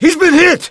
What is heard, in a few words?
panic; mohaa; dialogue